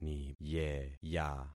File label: "niyeya", concat syllables; Affixing experiment